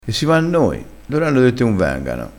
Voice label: "loro", crespinese

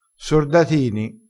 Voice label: "sordatini", crespinese

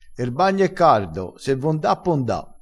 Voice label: "po", crespinese

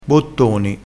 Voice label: "bottoni", vocabolario dialettale

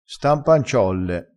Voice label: "sta n panciolle", crespinese

